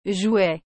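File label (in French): "jouet", French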